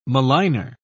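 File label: "maligner", English, uk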